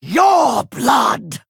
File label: Female Orc Attack